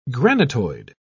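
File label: "granitoid", English, British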